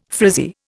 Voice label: "frizzy", Indian